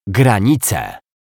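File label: "granice", Polish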